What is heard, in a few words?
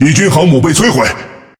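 Speech Destroy Enemy Aircarrier, Chinese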